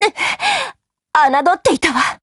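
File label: BREAK, Japanese; GF Alarm; M1891Mod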